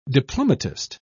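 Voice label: "diplomatist", British English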